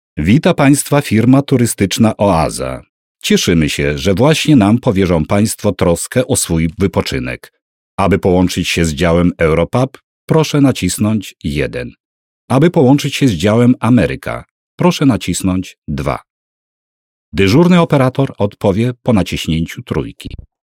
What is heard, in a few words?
polish male